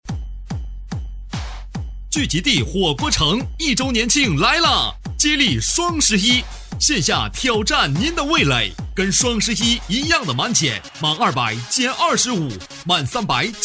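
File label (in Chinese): 男促销; 聚集地火锅城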